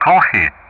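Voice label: "Kolkhi", English; Ka-50; Callsign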